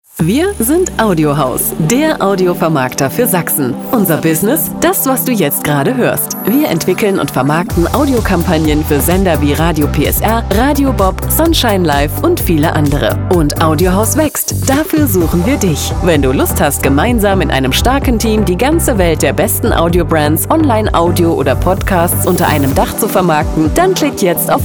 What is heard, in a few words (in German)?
Funkspot; AUDIOHOUSE